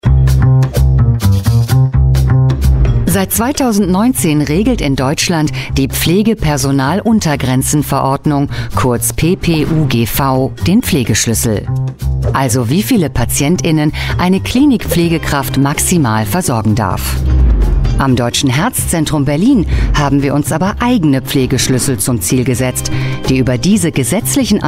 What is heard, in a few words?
german voice over female narrator